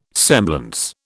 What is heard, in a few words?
"semblance", British